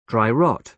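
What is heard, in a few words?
"dry rot", British English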